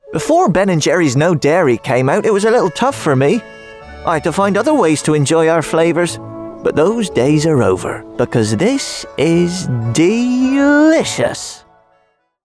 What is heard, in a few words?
male English West Country accent commercial; ben and jerrys